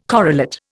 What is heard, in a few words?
"correlate", Indian